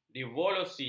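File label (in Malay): "devaluasi", Malay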